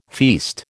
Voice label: "feast", American